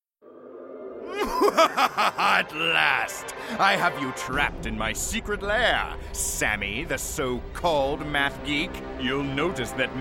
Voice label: Animation